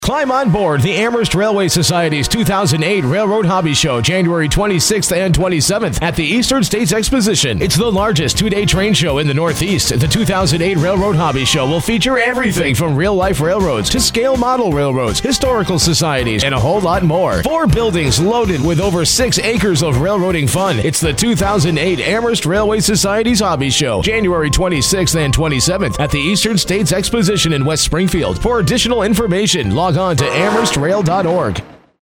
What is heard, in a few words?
Radio Spot